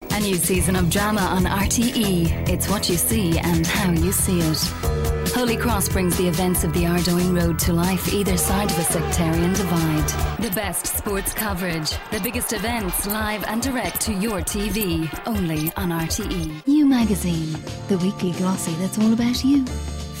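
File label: English Irish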